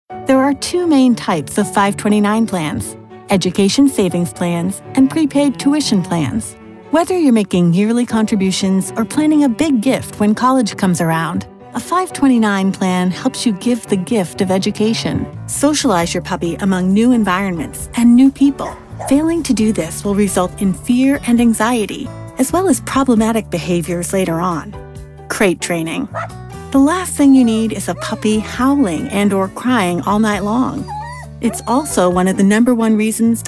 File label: vo eLearning